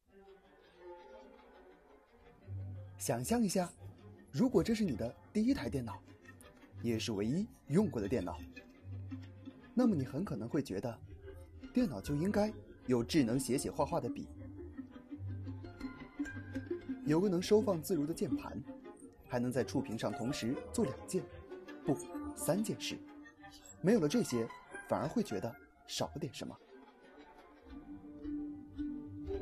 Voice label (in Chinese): 轻松感